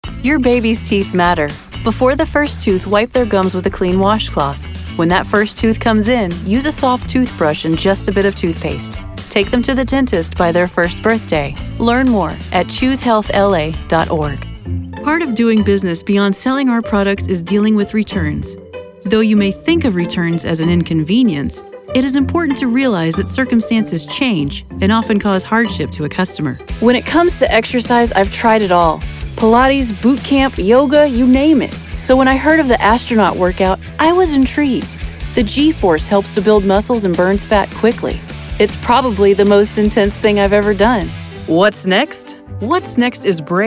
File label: vo narration